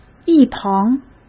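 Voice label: "一旁", Mandarin Chinese; chinese-flashcards; hsk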